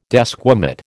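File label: "desquamate", American English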